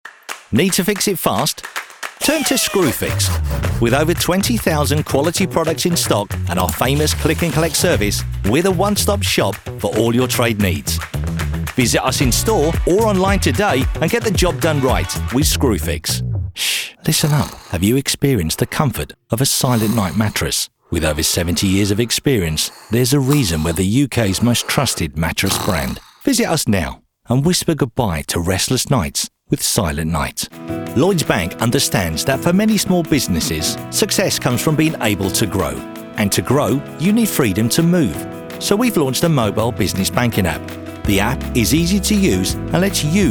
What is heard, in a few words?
Commercial